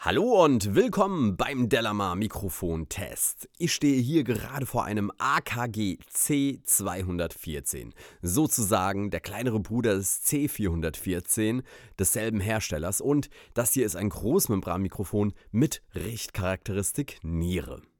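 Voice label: speech male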